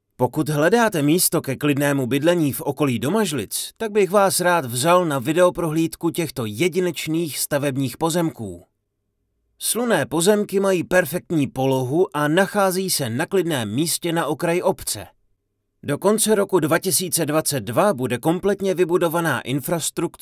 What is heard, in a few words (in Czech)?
Mužský hlas - voiceover/dabing; (60 sekund); profesionální dabing